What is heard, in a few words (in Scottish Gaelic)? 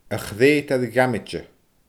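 "a chreutair dhamaite", Scottish Gaelic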